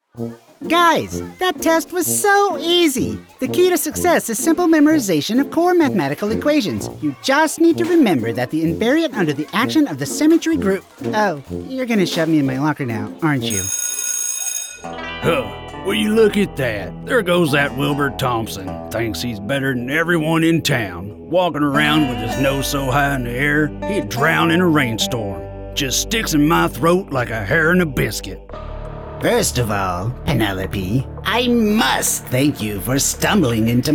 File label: character